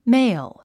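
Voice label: "male", English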